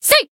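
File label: attack, Japanese; kikyou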